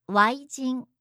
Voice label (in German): "waijin", japanische